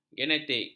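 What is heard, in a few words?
"genetik", Malay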